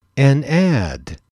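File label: "an ad", male, English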